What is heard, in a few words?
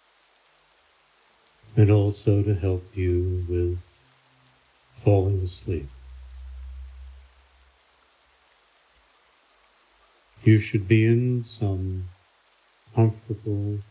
Sleep Relax Rain, healing narrations; Voice with Rain